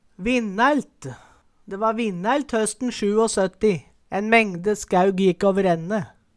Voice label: vinnælt, Numedalsmål; De va vinnælt høsten sjuåsøtti, en mengde skæug jikk åverenne

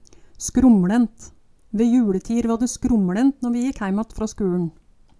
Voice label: skromLent, Numedalsmål

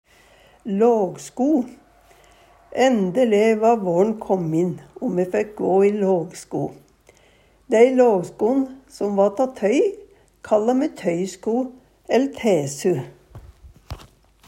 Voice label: lagsko, Numedalsmål

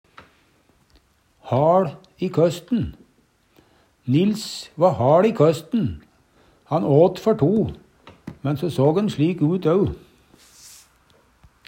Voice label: haL i køsten, Numedalsmål; Nils va haL i køsten. Han åt før to, men so såg'n slik ut au.

